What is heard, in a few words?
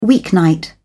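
"weeknight", British English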